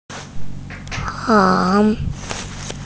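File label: "heng2", Mandarin Chinese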